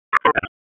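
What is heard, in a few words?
Police Spec Ops Tac, radio; wake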